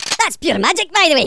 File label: "Collect", Angry Scots; Worms Armageddon